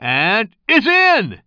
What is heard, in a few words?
commentator